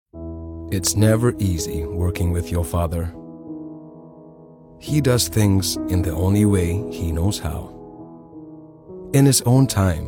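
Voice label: ENGLISH CONVERSATIONAL ANCHOR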